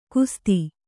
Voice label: "ಕುಸ್ಥ", kannada